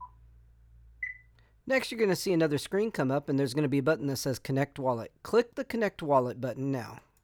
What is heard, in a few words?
Voiceover; Setting up a solana wallet